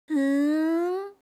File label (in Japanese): "fuun", reaction, voice